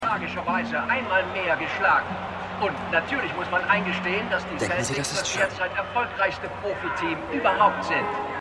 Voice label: Sportkommentator; Winning Time

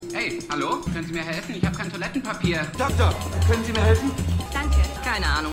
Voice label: Mann Toilette Patient Danke Keine Ahnung; New Amsterdam

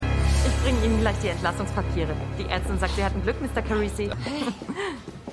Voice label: Krankenschwester, Stimmproben; LO SVU

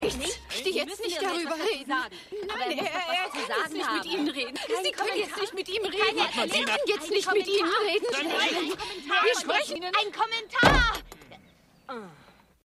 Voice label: Reporterin

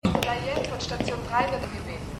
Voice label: Krankenhausdurchsage; Die Nanny

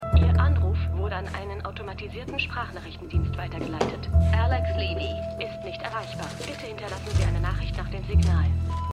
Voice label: Automatisierter Sprachnachrichtendienst; The Morning Show

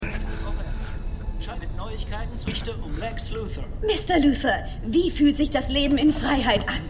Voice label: Nachrichtensprecher Reporterin; Supergirl

